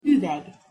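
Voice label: "uveg", Hungarian pronunciation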